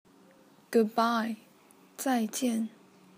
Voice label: Goodbye China Mandarin

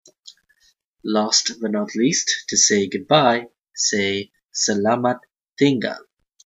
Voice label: Goodbye Bahasa

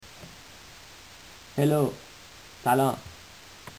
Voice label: Hello Iran Persian

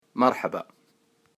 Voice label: Welcome, Arabic

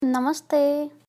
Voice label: Hello Nepali

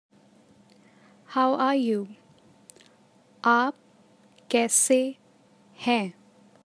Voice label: How are you Hindi

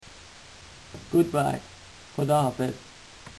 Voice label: Goodbye Iran Persian

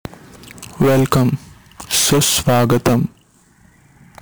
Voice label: welcome Indian Telugu

